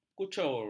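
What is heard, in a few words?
"kucur", Malay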